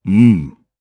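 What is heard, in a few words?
Vox, voices, Japanese; kingsraid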